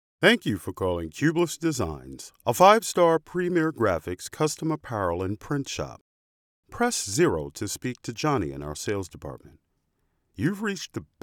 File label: IVR; Kyublis